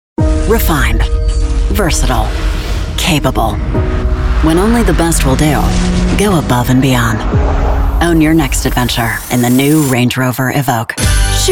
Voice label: Drama, English; WOMAN